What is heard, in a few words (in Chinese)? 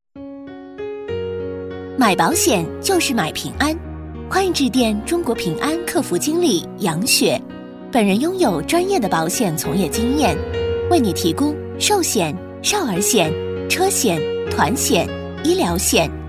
女 电话彩铃; 甜美亲切; 平安保险